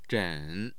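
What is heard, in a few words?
"zhen3", Mandarin Chinese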